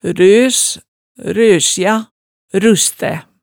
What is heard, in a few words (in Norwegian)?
"røs", hemsedalsmaalet